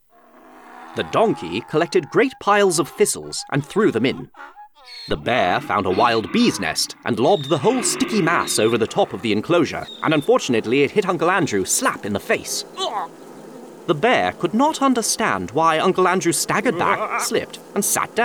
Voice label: Audiobook and Cartoon